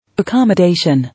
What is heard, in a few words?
"Accommodation", English